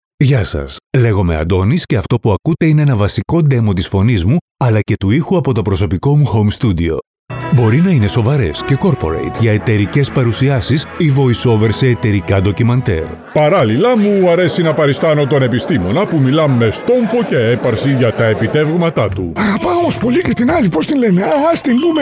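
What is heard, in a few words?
Greek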